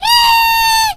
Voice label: Squeak hurt vo